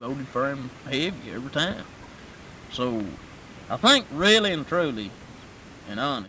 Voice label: Conversation with 30 year old white male Pettigrew Arkansas, american english dialect recordings